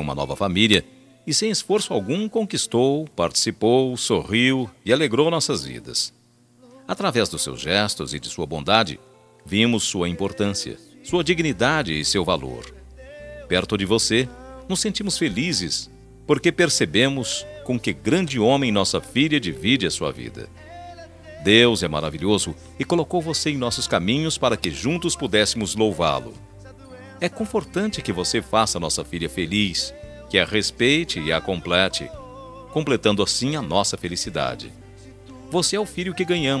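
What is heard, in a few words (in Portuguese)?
Aniversário Evangélico Genro Masculino, telemensagem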